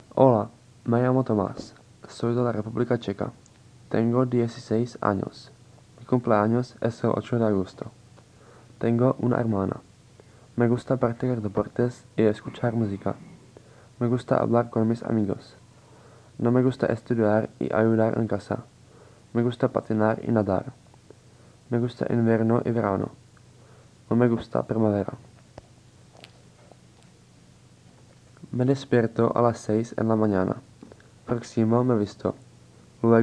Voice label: spanish oral